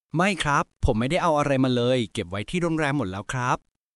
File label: Thai, basic Thai phrases